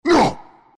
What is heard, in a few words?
pain; dota; furion